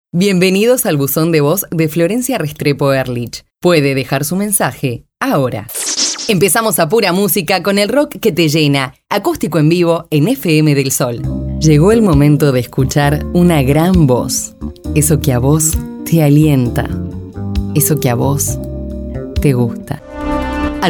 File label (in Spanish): locucion